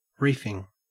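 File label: "reefing", Australian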